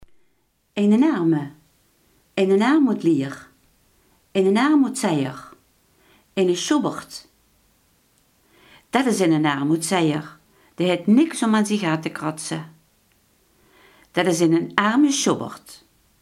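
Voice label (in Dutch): iemand die arm is, Limburgse gesproken woordenboeken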